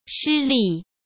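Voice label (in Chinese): "失利", Mandarin; chinese flashcards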